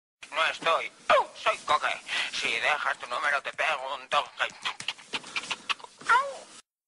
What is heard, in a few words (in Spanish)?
contestador